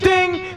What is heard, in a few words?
"ding", voice; ooh ahh